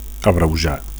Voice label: "abreujar", Catalan; to shorten; to abbreviate